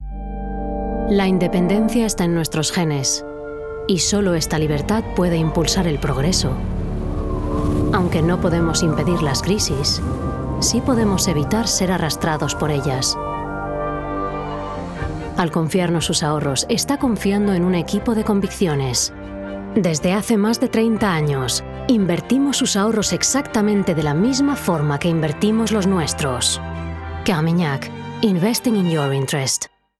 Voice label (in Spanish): ESP; Carmignac